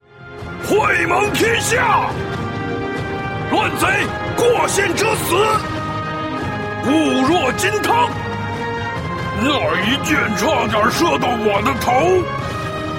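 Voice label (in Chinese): male, zhuanghan dashu (burly middle-aged man)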